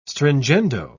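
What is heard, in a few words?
"stringendo", British English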